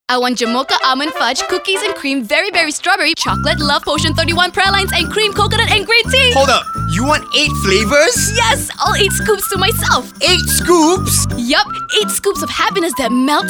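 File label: English; HAPPY 8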